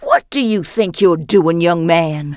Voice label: female ethnic busted

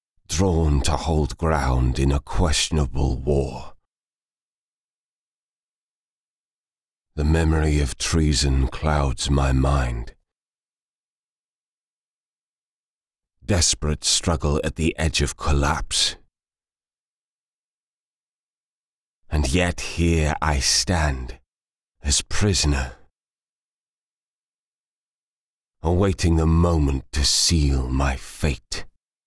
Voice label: Intro Voice

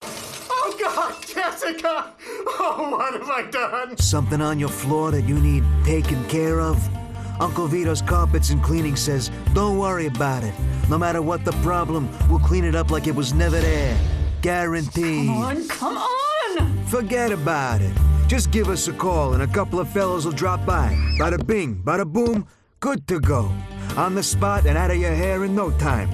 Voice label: Commercial; Uncle Vito's Carpets & Cleaning

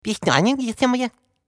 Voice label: Professor Talk

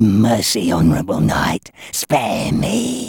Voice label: Voices English; Mander & Dogman

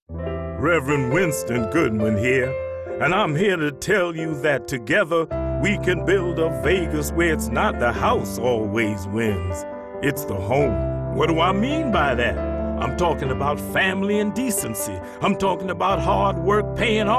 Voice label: Ad (Commercial); Reverand Winston Goodman

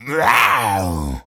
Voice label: devil cartoon; Infernal Radiation

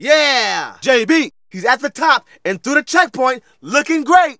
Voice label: Narration; Ollie King

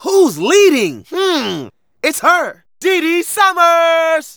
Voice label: Narration

